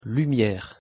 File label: "lumiere" pronunciation fr